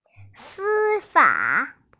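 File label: "si1 fa3", Mandarin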